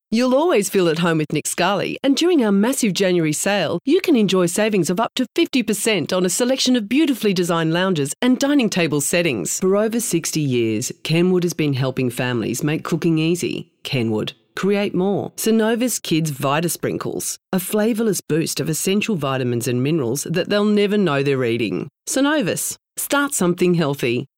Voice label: sample of commercials